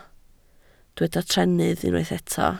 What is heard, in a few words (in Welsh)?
"trennydd", Welsh